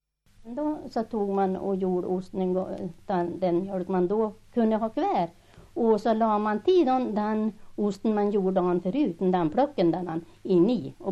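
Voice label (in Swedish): Revsund Jämtland, Svenska sagor, dialekter; Folkminnen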